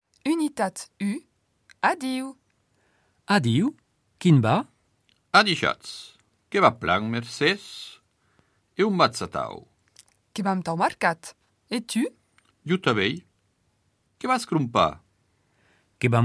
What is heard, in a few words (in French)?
dialogue, gascon